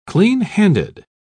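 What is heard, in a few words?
"clean-handed", English, uk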